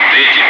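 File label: Russian loud; Wingman; DCS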